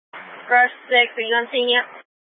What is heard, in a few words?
Incident Tac 1, scanner